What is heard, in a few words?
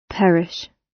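"perish" British; Business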